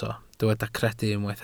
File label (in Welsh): "credu" Welsh